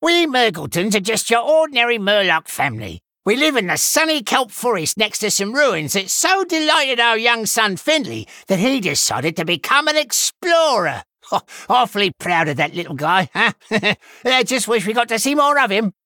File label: Male Murloc Lorebook; Finleys Dad